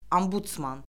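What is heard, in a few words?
"ombudsman", Azerbaijani; Orfoqrafiya Lüğəti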